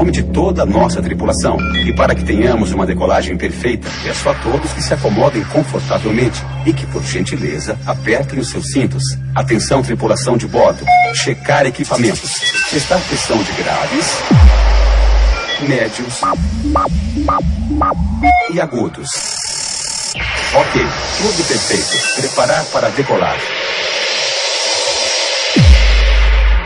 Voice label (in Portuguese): Atencao Senhores Passageiros, Vinheta